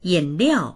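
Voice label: "yin3liao4", Mandarin Chinese; yǐnliào; 饮料; 飲み物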